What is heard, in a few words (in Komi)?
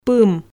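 "пым", Komi, female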